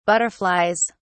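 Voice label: "butterflies", English, British English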